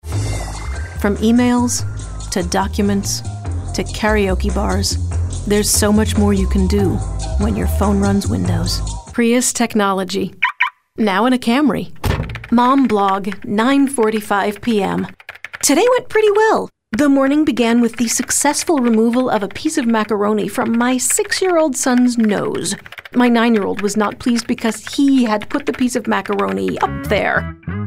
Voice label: commercial, voiceover